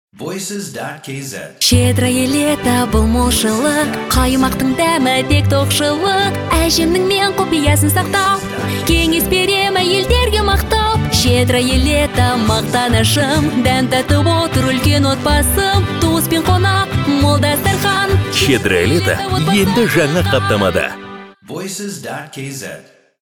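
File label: Kazakh vocal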